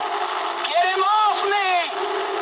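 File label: English aircraft damaged mood med; War Thunder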